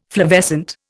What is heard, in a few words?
"flavescent", Indian